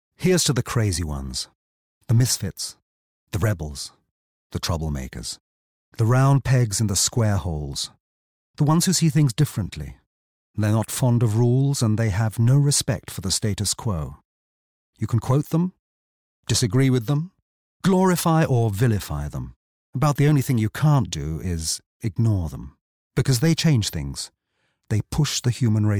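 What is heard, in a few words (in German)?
British englisch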